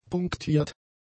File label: "punktiert", German; adjectives